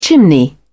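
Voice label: "chimney", English, British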